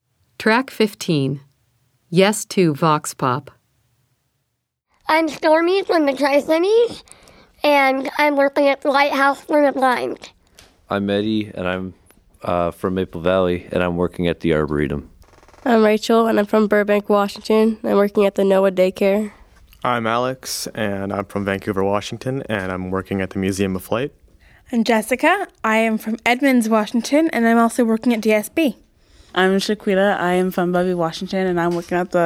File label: Vox Pop; Blind Youth Audio Project 2015